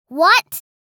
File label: "What", girl child kid